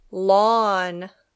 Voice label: "lawn", English

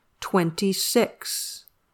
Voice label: "twenty-six", English

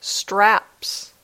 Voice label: "straps", English

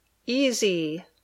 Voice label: "easy", English

